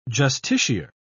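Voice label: "justiciar", British English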